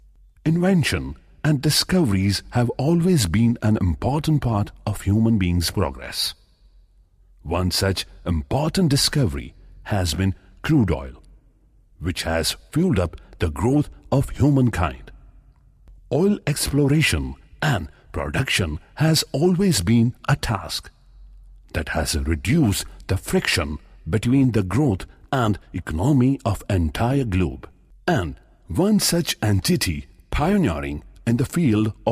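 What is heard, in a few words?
corporate english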